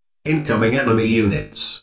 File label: incoming Enemy Units, Narrator Voice, Male AI; Narrator Voice Pack 2